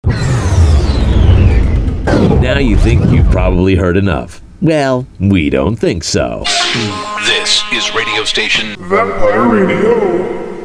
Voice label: Radio Ad